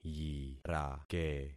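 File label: "yirake", concatenated syllables; Affixing experiment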